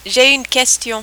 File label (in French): j ai une question, French